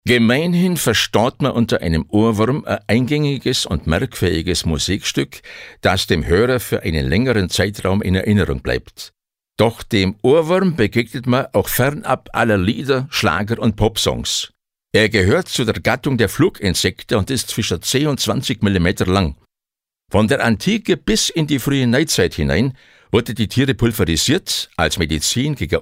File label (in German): Dialekt Allgaeuerisch